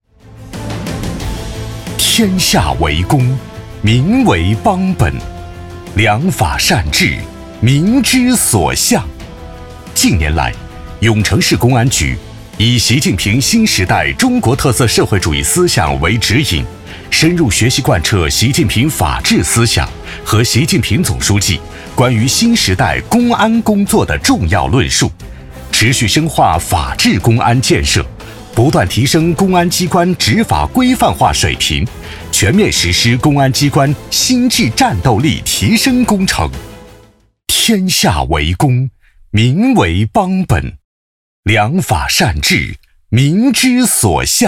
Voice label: 男 党政专题 力度 大气; 规范执法提质效

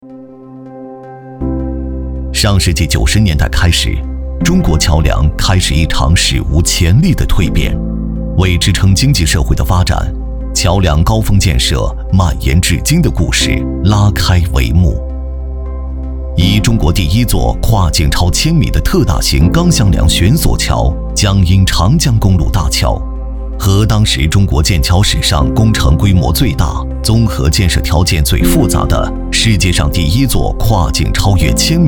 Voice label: 男 纪录片 探索交通

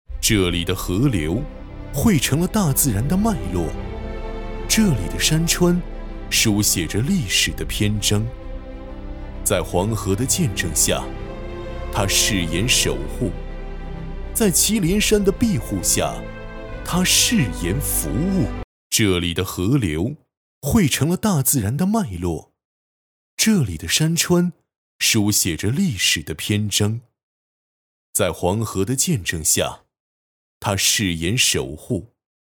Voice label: 男 宣传片; 守护黄河之畔的忠诚与担当

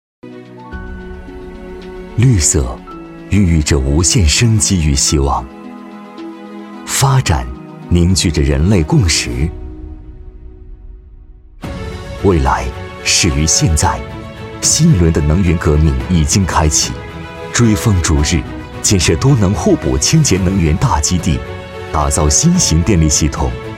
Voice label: 男 企业 沉稳 科技感; 华为数字能源

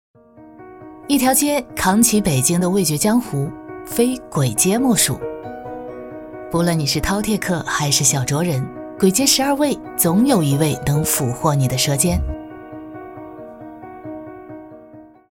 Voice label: 女 文旅

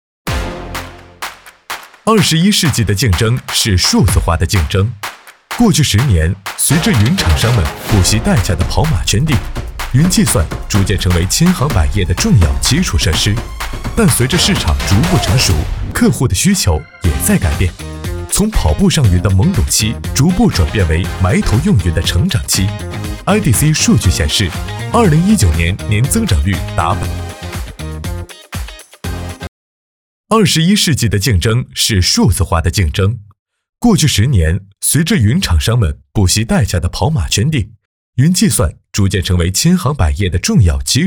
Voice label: 男 MG 21世纪的竞争是数字化的竞争

